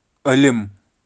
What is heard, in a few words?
"alim", Kashmiri